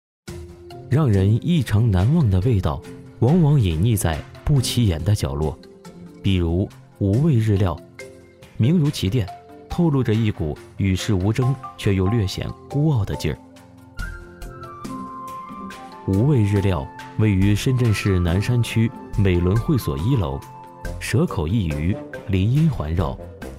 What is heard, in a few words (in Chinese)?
男 舌尖美食; 无味日料